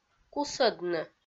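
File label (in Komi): "кусӧдны", Komi-Zyrian, female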